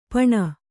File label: "ಪೆಣ್ಣು", Kannada